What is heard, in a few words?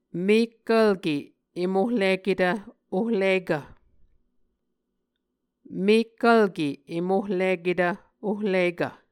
"mekkvlke em ohliketv ohlikv", Muscogee